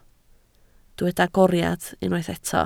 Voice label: "agoriad", Welsh

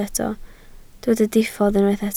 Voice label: "diffodd", Welsh

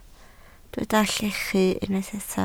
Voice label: "llechu", Welsh

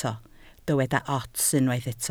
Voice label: "ots", Welsh

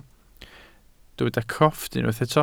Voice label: "crofft", welsh

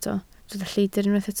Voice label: "lleidr", Welsh

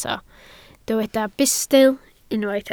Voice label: "bustl", Welsh

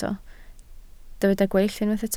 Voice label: "gweill", Welsh

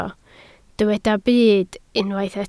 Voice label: "byd", Welsh

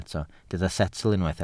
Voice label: "setl", Welsh

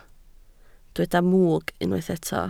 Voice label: "mwg", Welsh

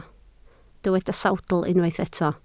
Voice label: "sawdl", Welsh

